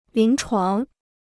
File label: "临床", Chinese